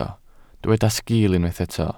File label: "sgiil", Welsh